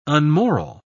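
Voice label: "unmoral", British English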